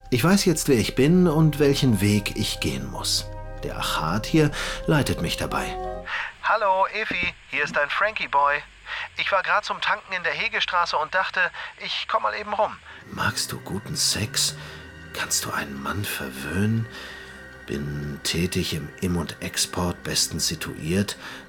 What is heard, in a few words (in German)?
de hoerspiel, deutsch maenner; sprecher